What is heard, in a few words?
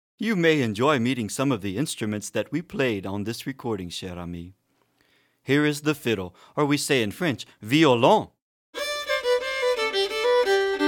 Narration